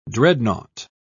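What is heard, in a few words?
"dreadnaught", British English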